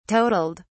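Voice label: "totaled", English, British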